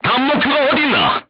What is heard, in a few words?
voice message target destroyed, korean, crew tanks